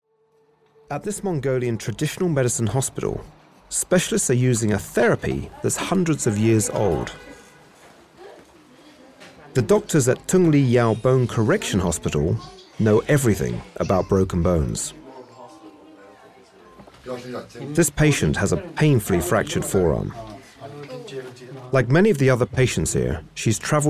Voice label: Documentary

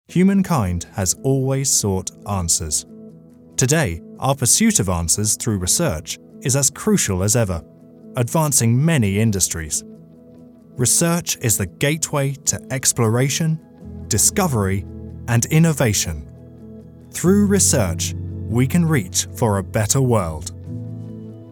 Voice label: Corporate

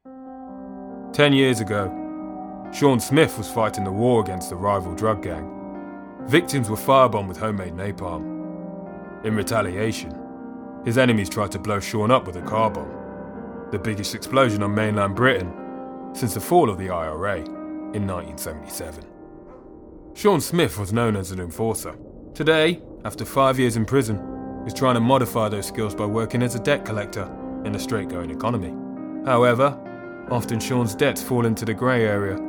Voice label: Docu; UKs Most Dangerous Debt Collector

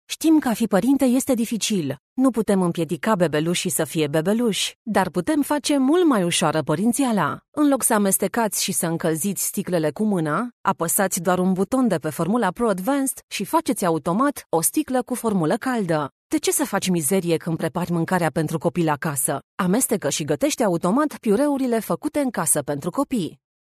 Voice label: Tutorial

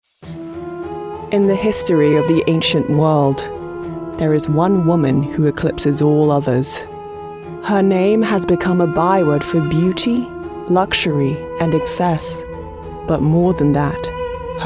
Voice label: Documentary Cleopatra

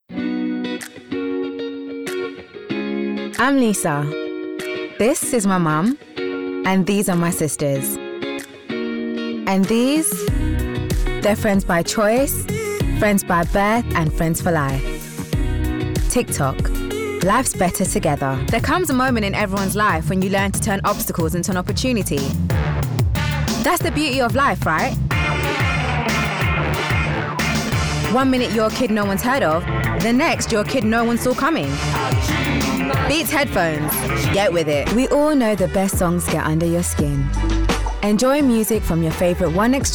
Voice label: Commercial

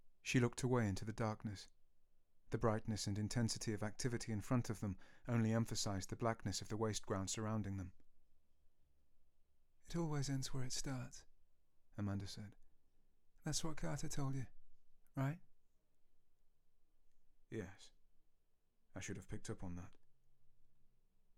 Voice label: Audiobook Fiction Narration

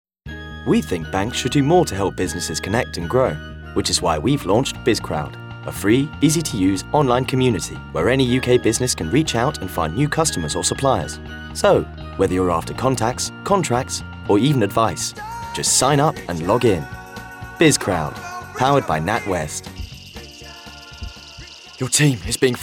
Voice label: Commercial

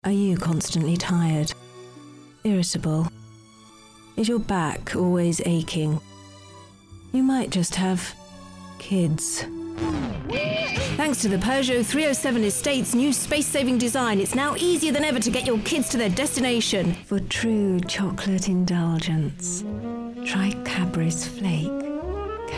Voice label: Commercial

